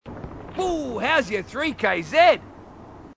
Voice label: marine, aussie; h2dialogue